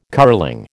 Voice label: "curling", American